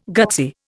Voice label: "gutsy", Indian